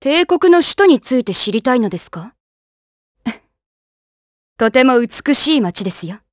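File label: vox dialogue, voices, Japanese; Glenwys; kingsraid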